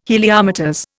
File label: "Heliometers", Indian